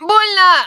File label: help, human woman wounded heavy; Stalker Anomaly